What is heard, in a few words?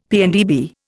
"PNdB", Indian; Dictionary